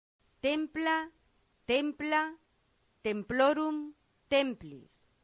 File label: "templa", latin; santillana